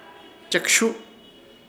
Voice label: "Chekshuhu", Ishir Chamacoco; cosechar o arrancar